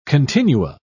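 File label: "continua", British English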